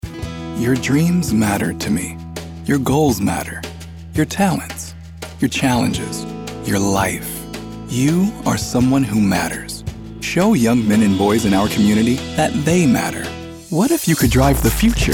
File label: Commercial; Male Voice Talent; Commercial Demo